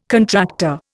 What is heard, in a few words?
"contractor", Indian